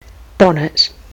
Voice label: "bonnet", kiwi english